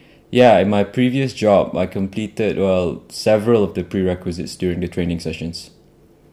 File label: interviewee male filler